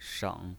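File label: "sheng", Mandarin Chinese pinyin, third tone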